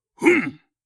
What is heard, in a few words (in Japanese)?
"ふん！", 戦闘ボイス（中年・低音）